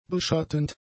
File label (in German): "beschattend", German; adjectives